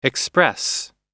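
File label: "express", English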